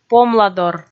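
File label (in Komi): "помладор", Komi, female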